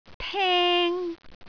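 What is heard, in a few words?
"teng1", Cantonese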